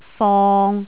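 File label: "fong3", Cantonese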